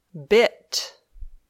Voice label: "bit", English

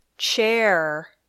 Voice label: "chair", English

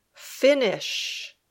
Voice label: "finish", English

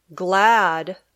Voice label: "glad", English

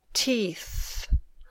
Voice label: "teeth", English

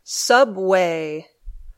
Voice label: "subway", English

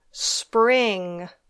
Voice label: "spring", English; The Seasons in English

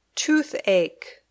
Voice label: "toothache", English

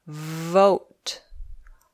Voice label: "vote", English

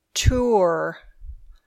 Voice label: "tour", English

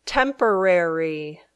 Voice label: "temporary", English

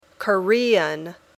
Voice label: Korean

